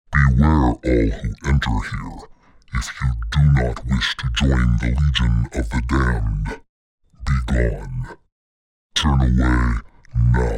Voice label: Demon Voice Recording

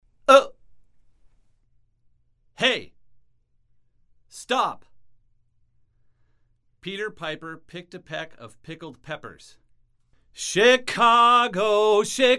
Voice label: After cardioid 3 inches male

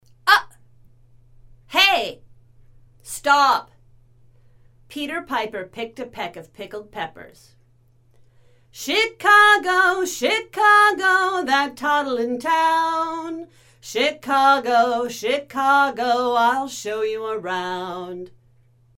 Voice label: Every other omni 18 inches female

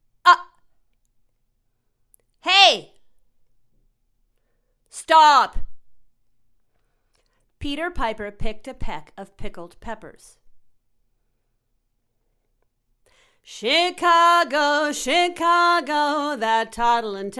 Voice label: Before omni 3 inches female

